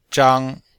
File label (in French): "zhang1", chinois; Cours de Chinois; Niveau 2